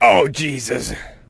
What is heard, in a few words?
shock; borealis; hl2